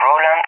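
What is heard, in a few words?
"Roland", English, SPAIN; AirDefence; DCS; Allied Flight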